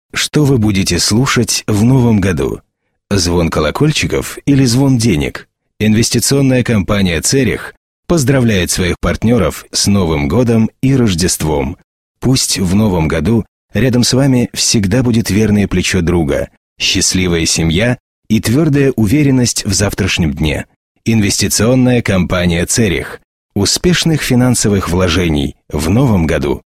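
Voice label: Russian, male, corporate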